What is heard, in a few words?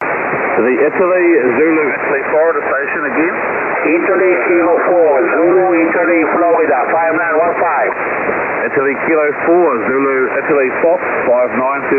cqwwdx 15m